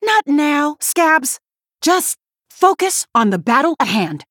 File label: Female Human; Cariel; Scabbs; Mission5